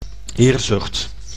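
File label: "eerzucht", Dutch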